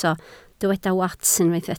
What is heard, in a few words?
"wats", Welsh